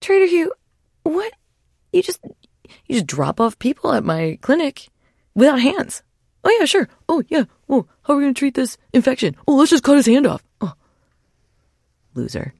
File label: trader monolog; 商人杰恩（七日杀）